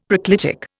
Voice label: "proclitic", Indian; Dictionary